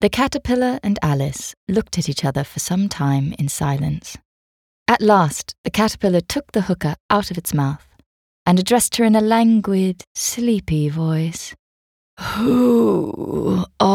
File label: Audiobook